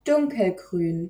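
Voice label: "dunkelgrün", German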